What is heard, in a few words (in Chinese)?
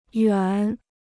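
"远" Mandarin Chinese; yuàn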